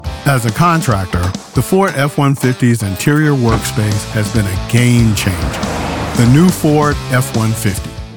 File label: Ford F 150 Commerical